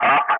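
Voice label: "running", English; Ka-50; Wingman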